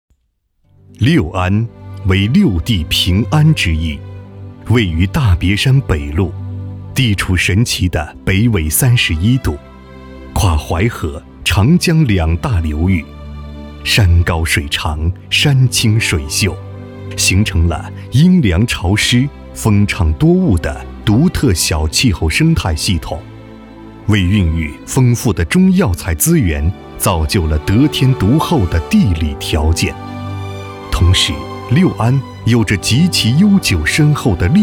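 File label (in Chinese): 纪录片·娓娓道来·博物馆; 纪录片·娓娓道来·六安博物馆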